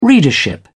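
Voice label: "readership", British English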